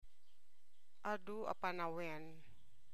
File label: Ilocano